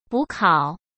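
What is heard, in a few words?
"补考", Mandarin Chinese; chinese-flashcards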